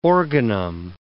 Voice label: "organum", English

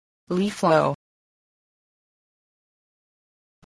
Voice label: "leaflet", English

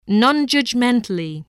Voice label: "non-judgmentally", voice

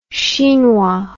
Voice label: "chinois", English

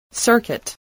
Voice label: "circuit", English, voice

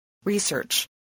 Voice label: "research", English

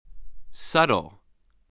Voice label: "subtle", spelling

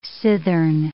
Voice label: "cithern", English

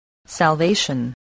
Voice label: "salvation", English spelling

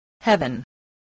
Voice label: "heaven", English, spelling

